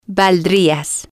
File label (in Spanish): valdrías, Spanish